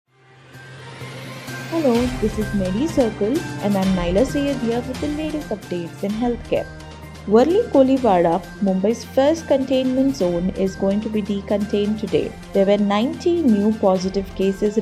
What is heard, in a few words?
english news